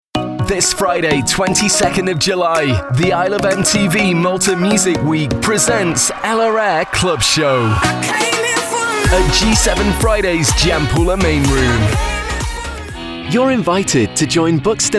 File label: Commercials; professional English voice talent with a neutral or northern UK accent; Voice Over Artist